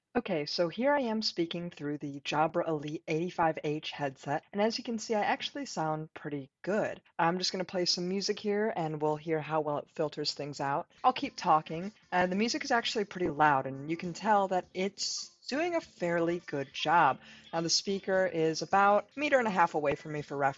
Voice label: microphone demo; Jabra Elite 85h